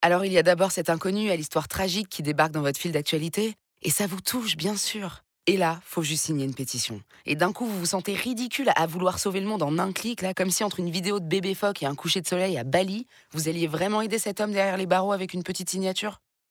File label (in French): Spot radio; 10 jours pour signer 2022